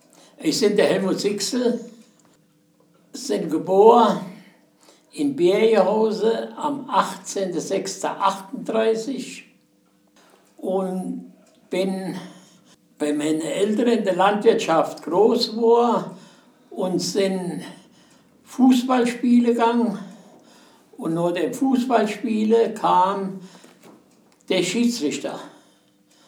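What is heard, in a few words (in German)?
vorstellung, O-Ton Hunsrück; Bergenhausen; stellt sich vor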